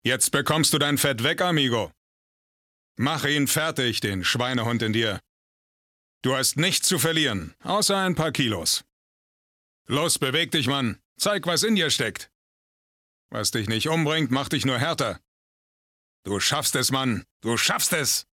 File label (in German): synchron